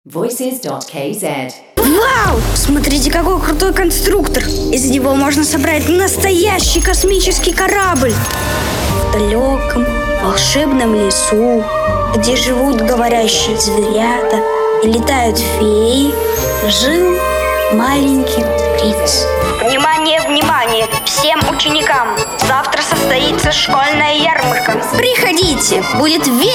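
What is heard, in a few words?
Russian kids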